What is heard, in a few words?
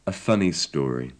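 "a funny story", British English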